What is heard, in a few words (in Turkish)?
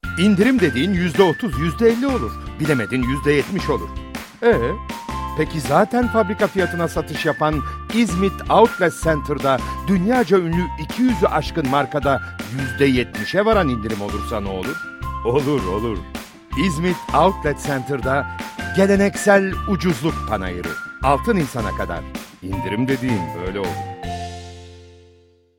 kampanya, seslendirme